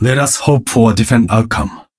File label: jeers; genji